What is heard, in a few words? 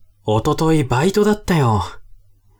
man